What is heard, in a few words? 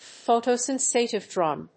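"photosensitive drum", English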